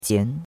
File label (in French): "jian2", chinois; Cours de Chinois